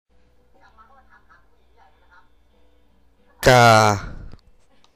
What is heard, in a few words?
Tone 2 is low tone male